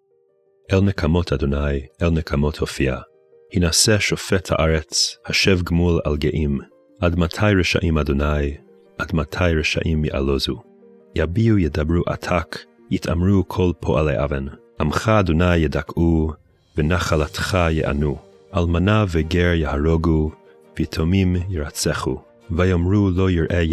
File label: poetry and prayer psalm hebrew; Meir Soloveichik